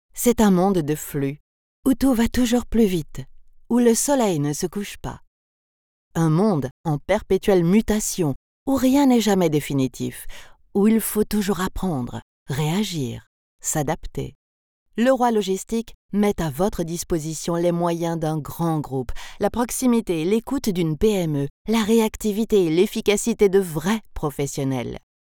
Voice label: Corporate Logistique; Leroy Logistique

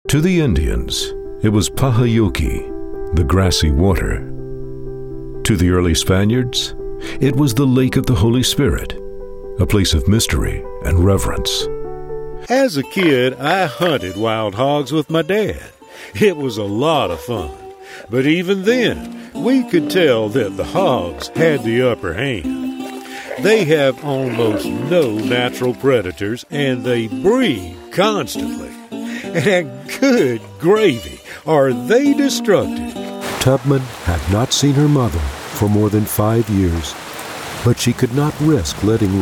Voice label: Tour Guide

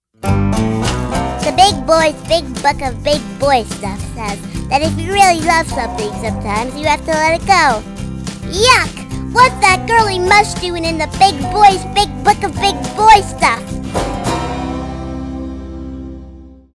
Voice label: Animation

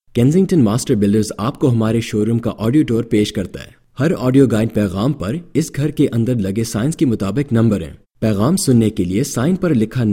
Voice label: Hindi Narration

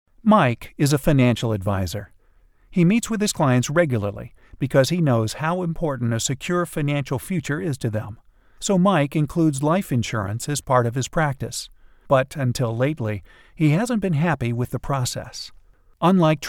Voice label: Explainer video

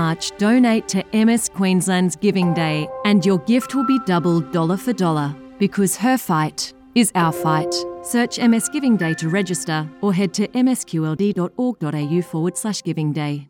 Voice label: Radio with Music; MS Giving Day Radio Edit

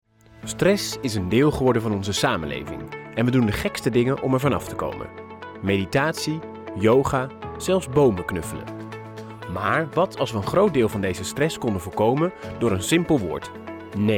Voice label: Explainer

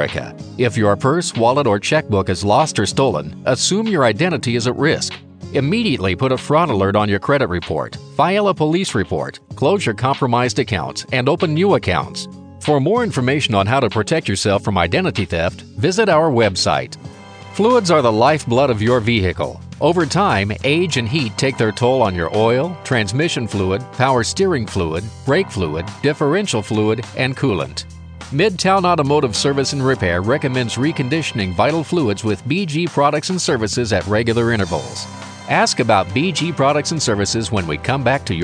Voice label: on-hold

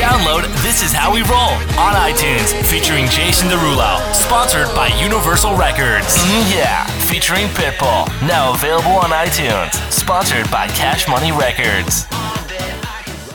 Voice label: Music Promo

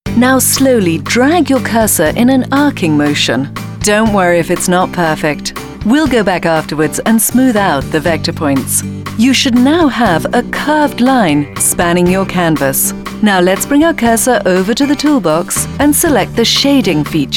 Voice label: E Learning

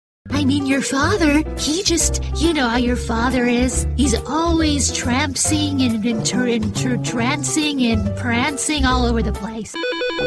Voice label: Animation

